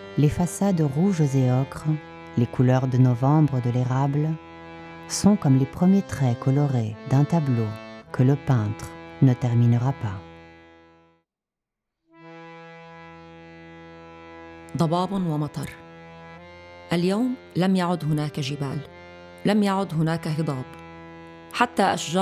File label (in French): Brume et pluie bilingue; Poèmes en paysages